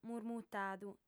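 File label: "murmutadu" Sardinian, female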